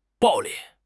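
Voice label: "暴力", Chinese; HSK6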